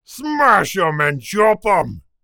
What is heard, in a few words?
orc dialogue smash em and chop em; esmteam